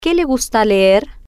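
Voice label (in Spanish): Que Le Gusta Leer, spanish, female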